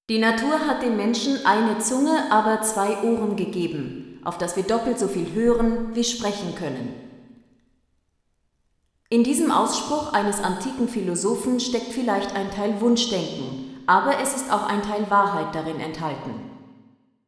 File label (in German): Stimme weiblich, EMT140; SQAM